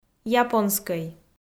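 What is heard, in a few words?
"японскӧй", Komi, female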